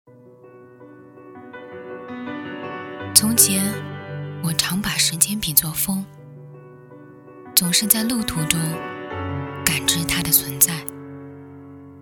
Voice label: 专题影片解说低沉果敢

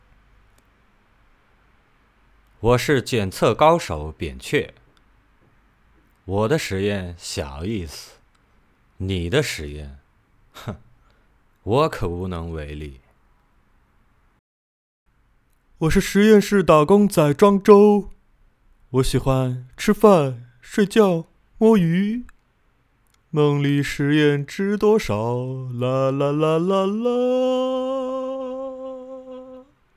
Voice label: 游戏 配音

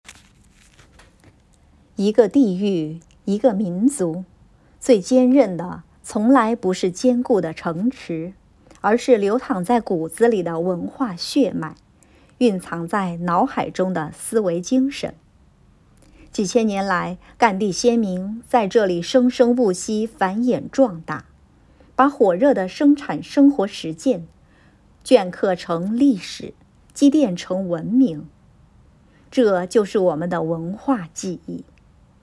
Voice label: 女干音 文章朗诵 沉稳深度; 赣鄱文明

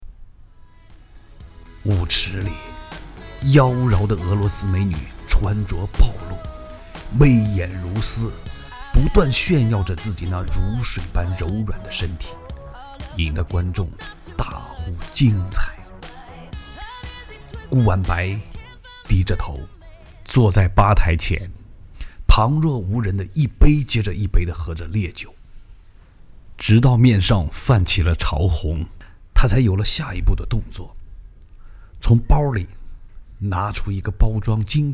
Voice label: 悬疑小说片段

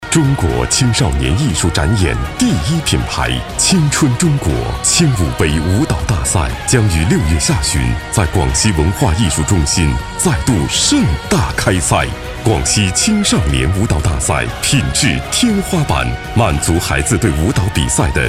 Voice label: 激昂厚重广告; 中国青少年

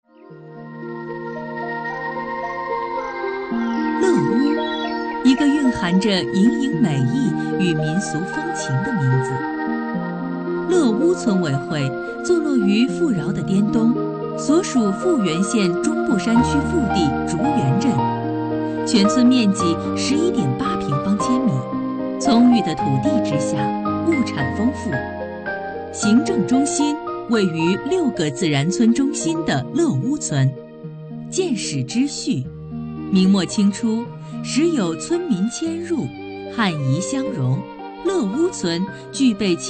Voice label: 专题片创建国家文明城市建设美丽乡村; 乐乌篇

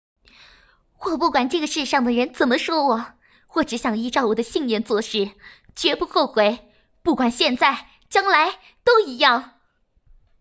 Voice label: 积极活泼

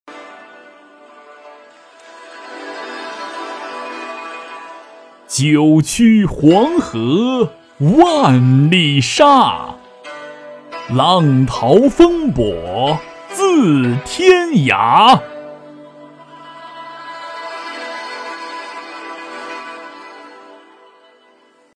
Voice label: 有音乐; 九曲黄河万里沙成品

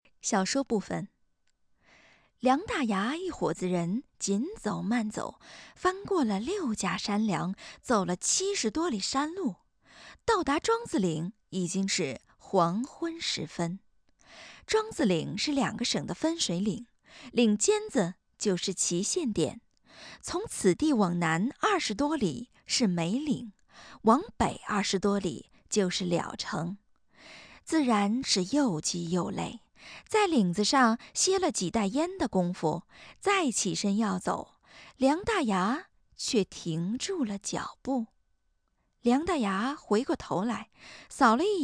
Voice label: 小说; 小说部分

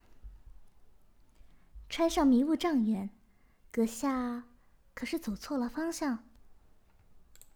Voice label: 少女音

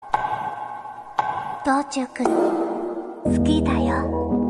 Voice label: 日语配音

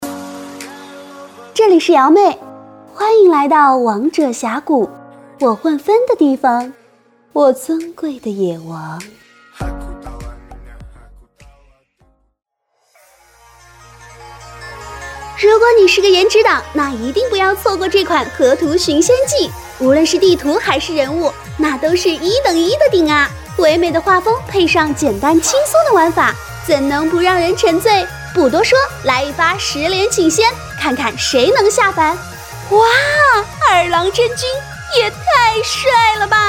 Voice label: 游戏配音

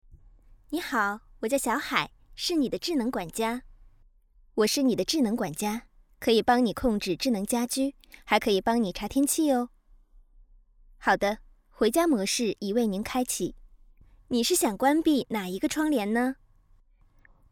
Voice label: 配音温柔风智能语音

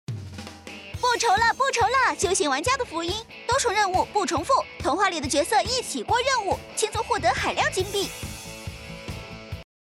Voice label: 叫卖广告

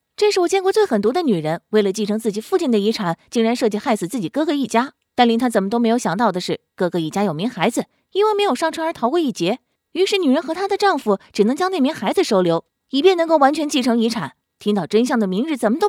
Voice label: 动漫 解说

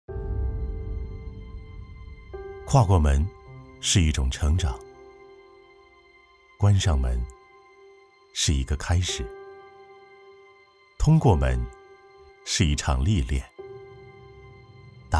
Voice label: 走心微电影; 门

